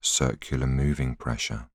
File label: voiceover